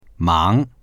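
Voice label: "mang2", Mandarin Chinese; 忙; 忙しい、急いでいる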